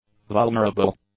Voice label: "vulnerable", English; adjectives